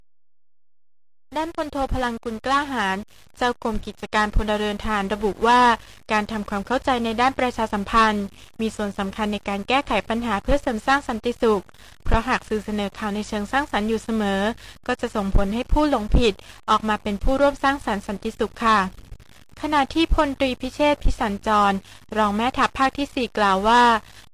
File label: thailand, narrator; MediaVoix; vice sample